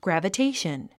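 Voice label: "gravitation", English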